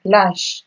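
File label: "lash", Nigerian Pidgin, female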